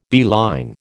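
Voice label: "beeline", American English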